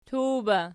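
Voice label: "tuwba" nominative, Archi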